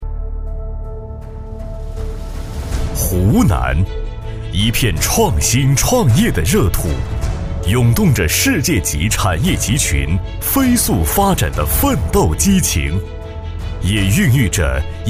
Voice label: 男 城市宣传片; 湖南宣传片

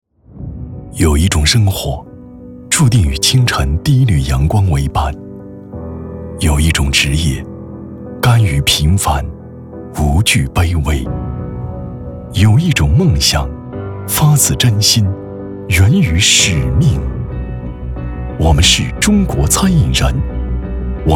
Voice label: 男 走心微电影 走心 情感; 中国餐饮人

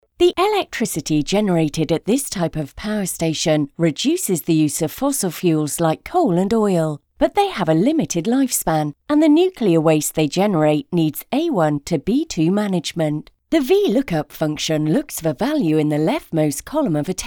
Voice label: 外籍英女 自然讲解 网上教学

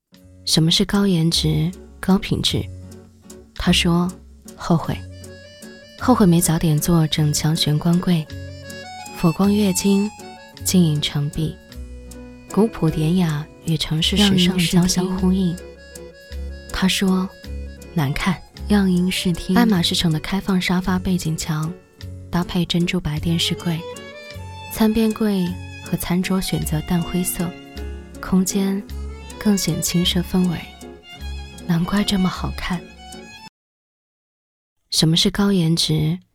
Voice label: 女 独白 优雅慵懒; 把生活拍成电影

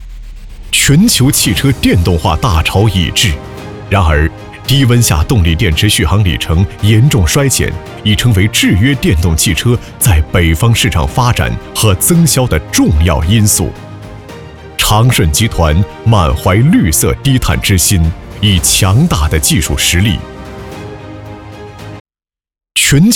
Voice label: 男 专题 大气品质; 长顺集团

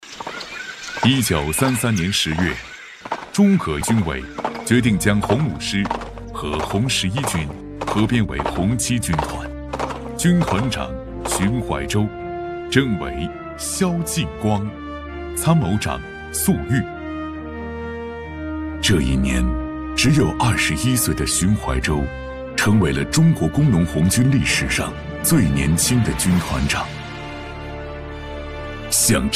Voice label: 男 纪录片 历史厚重; 建党100周年重点纪录片; 燃烧

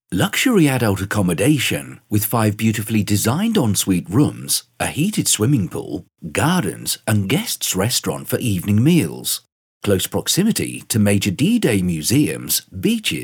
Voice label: 外籍英男 网站宣传

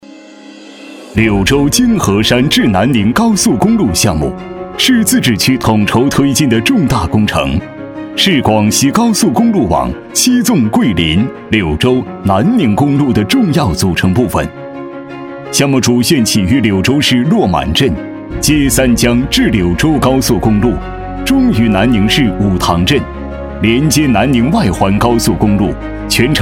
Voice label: 男 激昂高亢 汇报; 新柳南项目汇报

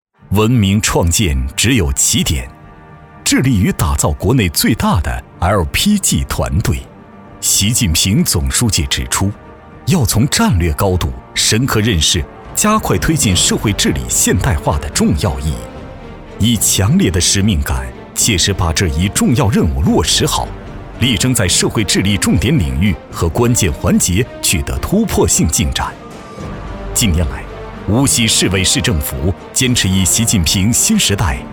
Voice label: 男 红色专题 大气滂湃

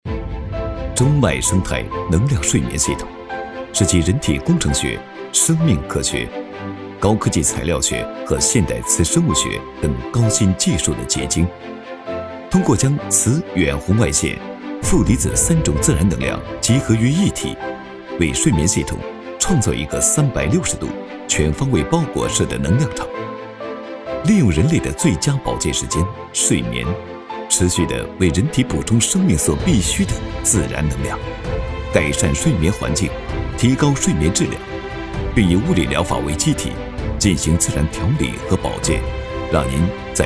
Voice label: 男 科技感 能量睡眠; 中脉能量睡眠

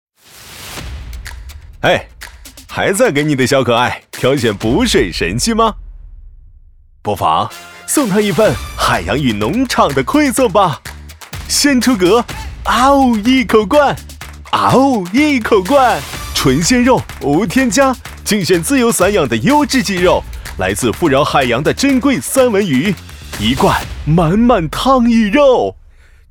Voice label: 男 广告 宠物食品 阳光活力

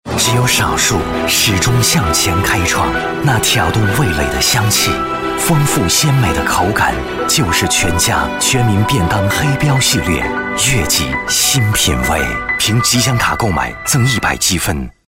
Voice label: 男 广告 国语男声配音; 全家 便当

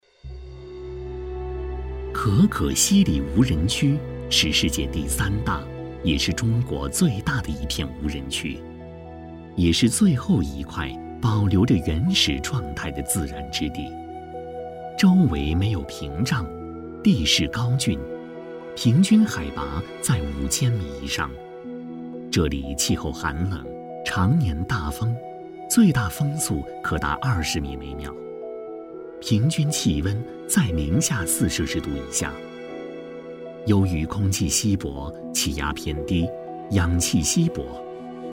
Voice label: 男 专题 纪录片; 可可西里

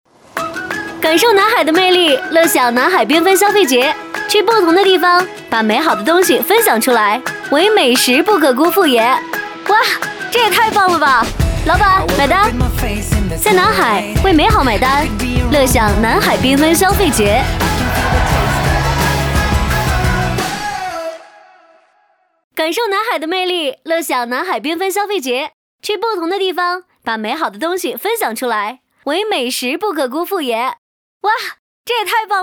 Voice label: 女,欢乐

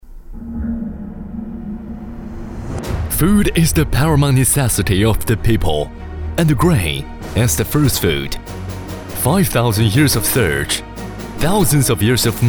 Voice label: 男 英文 大气震撼; 河南粮院

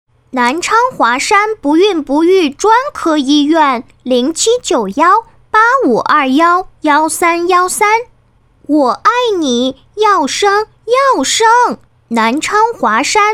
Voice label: 女 童声模仿

